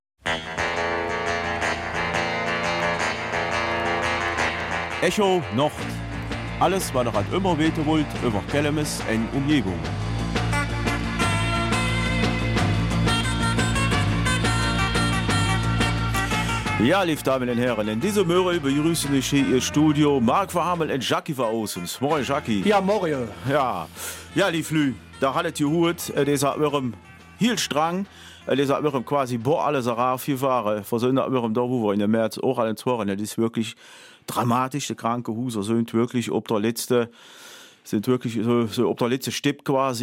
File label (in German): Kelmiser Mundart; Gedenksteine für verschleppte Naziopfer in Lontzen